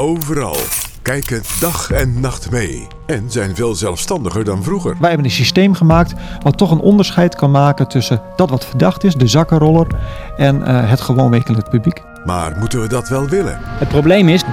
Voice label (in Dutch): Promo Reporter Radio